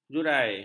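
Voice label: "jurai", Malay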